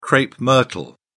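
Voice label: "crape myrtle", English, British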